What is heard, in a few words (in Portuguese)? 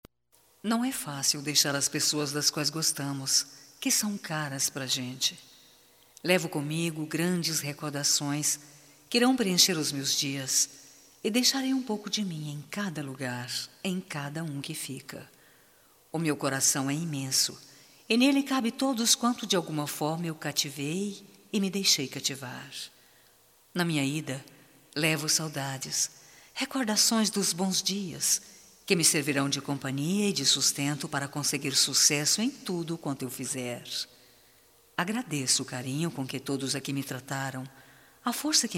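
DESPEDIDA - female, Telemensagens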